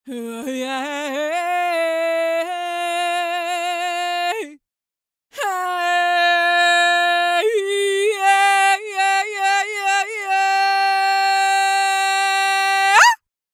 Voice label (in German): trockene Originalstimme; Waves Abbey Road Studios Reel ADT; Soundiron Voice of Gaia